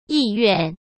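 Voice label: "意愿", Mandarin Chinese; hsk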